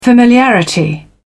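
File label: "familiarity", English, British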